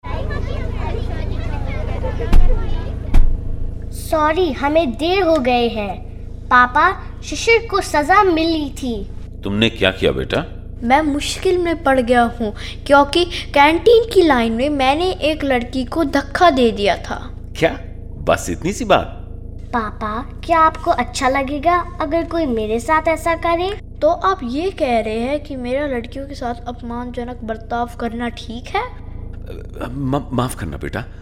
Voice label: Radio Hindi; CALD

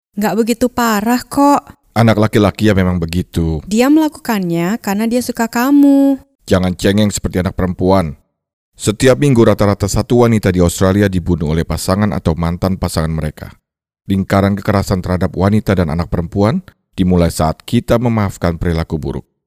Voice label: indonesia

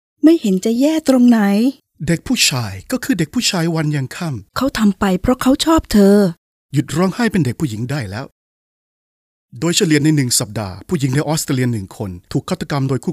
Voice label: thai

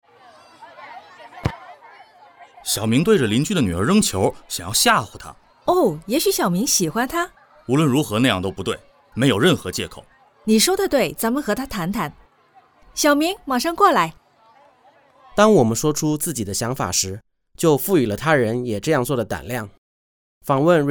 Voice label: Radio Mandarin; CALD

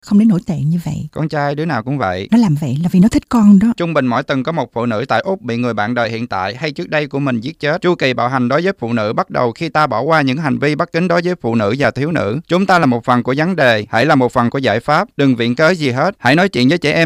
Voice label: vietnamese

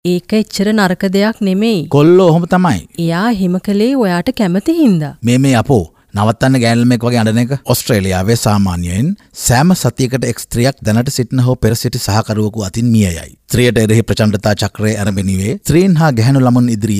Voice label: sinhalese

